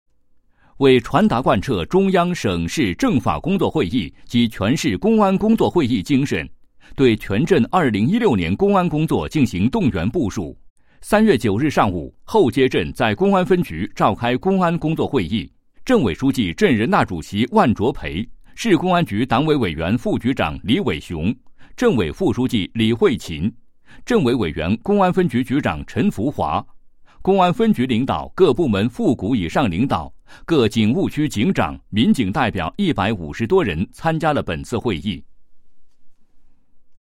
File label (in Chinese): 男 新闻播报; 某镇召开公安工作会议